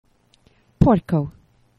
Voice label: "porko", Esperanto; geneva